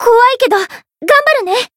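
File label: Voice variable chant, Japanese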